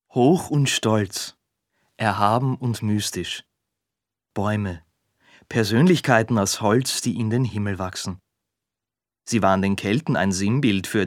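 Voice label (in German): Imagefilm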